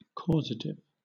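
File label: "causative", British English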